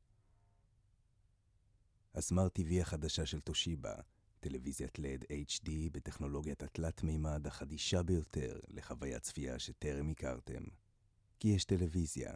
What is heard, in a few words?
hebrew commercial; Hebräisch; Australien